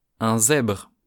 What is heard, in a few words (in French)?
zebre, sounds-fr